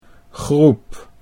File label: "groep", Dutch